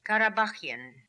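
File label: "Karabaghian", pronunciations; Fourth Way; Beelzebub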